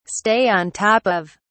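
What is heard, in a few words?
"stay on top of", British English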